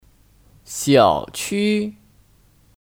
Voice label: "小区", Xiǎoqū; (Kata benda): Komplek

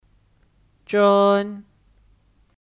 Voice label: "真", Zhēn; (Kata keterangan): Sangat

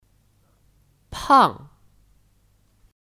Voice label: "胖", Pàng; Kata sifat; Gemuk

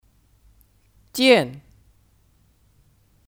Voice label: "件", Jiàn

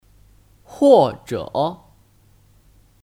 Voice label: "或者", Huòzhě; Kata hubung; Atau (untuk kalimat pernyataan)

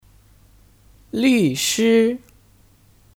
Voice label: "律师", Lǜshī

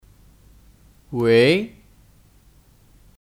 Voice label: "喂", Wèi; Hei!; Halo (telepon)

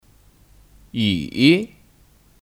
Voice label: "雨", Yǔ; (Kata benda): Hujan

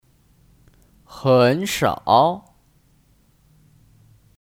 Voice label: "很少", Hěn shǎo; Jarang

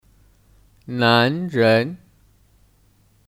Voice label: "男人", Nánrén; (Kata benda): Pria

